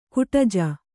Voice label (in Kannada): "ಗೂಢಜ", Kannada